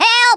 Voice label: "GRENADE", Australian; Worms Armageddon

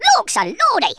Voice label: "nooo", Speech, Geezer; Worms Armageddon

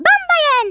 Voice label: ORDERS, Speech Hungarian; Worms Armageddon

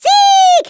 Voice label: "victory", German; Worms Armageddon

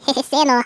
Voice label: "watch this", Norwegian; Worms Armageddon

